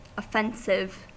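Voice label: "offensive", US English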